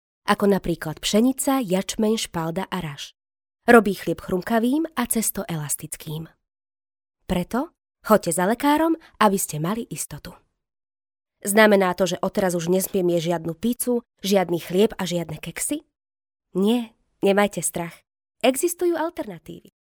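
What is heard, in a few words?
Slovak, female, narration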